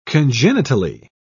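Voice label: "congenitally", British English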